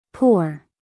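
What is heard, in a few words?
"poor", American English, female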